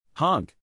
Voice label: "honk", geese, neural; animal sounds in english; Stephen